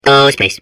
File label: babble; portal2